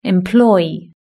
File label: "employ", British English